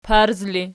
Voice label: "parz", Archi

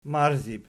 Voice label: "marzib", Archi

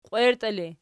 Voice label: "qwierti", Archi

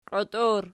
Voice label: "qiut", Archi

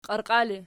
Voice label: "qiarqiali", Archi

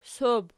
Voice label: "sob", Archi

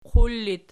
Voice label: "qur", Archi

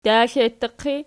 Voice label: "daxlekes pot", Archi

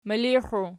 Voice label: "milixiu", Archi

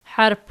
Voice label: "harp", archi

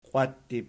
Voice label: "qiwattib", Archi

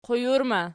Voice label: "qujurma", Archi

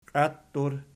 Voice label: "qiaittur", Archi

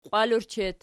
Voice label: "qiwalur", Archi

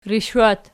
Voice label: "rishwat", Archi

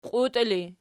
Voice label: "qiutii", Archi

